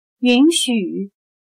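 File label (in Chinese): "允许" Mandarin Chinese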